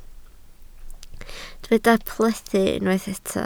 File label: "plethu", Welsh